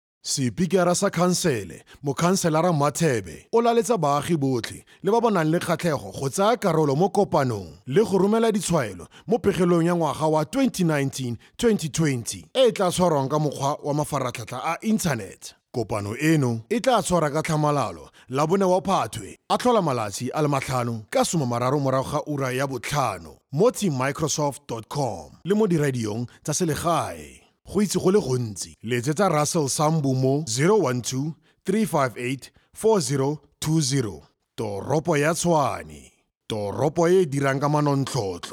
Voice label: Setswana Male

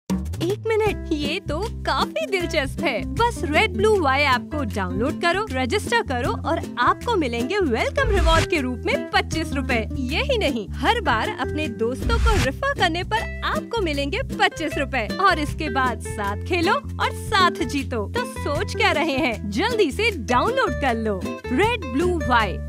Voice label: Hindi Female

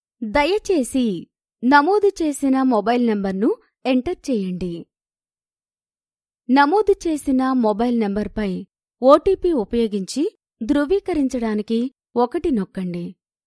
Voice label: Telugu Female

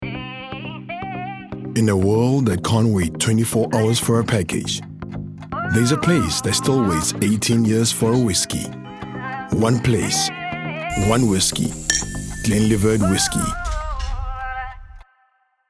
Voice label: English South African, Male

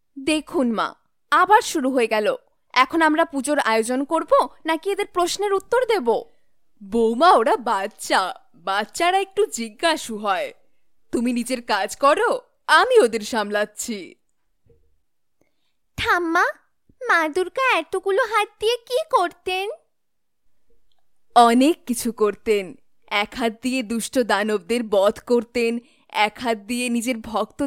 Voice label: Bengali Female

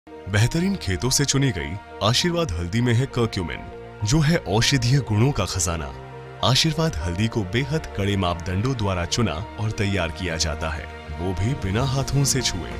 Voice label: Hindi, Male

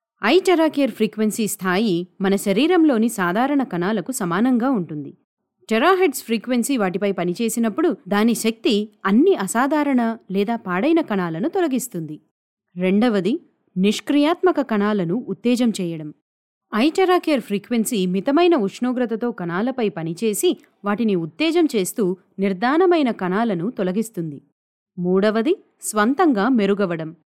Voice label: Telugu, Female

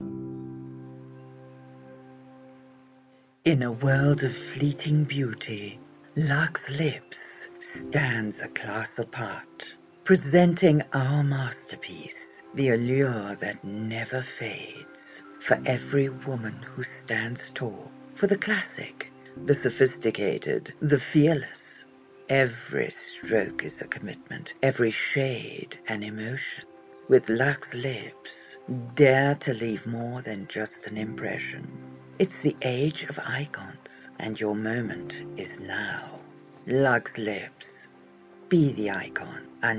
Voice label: English South African, Female

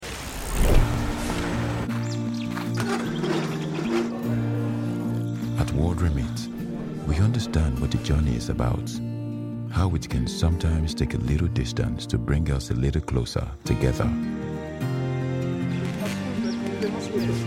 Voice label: ENG AF, English African, Male

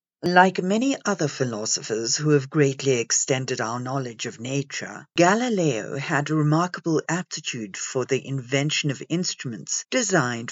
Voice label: English South African, Female

